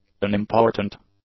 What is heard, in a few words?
"unimportant", english; adjectives